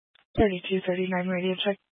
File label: Police 1 Dispatch